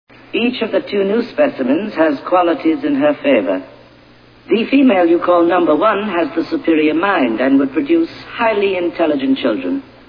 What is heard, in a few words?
female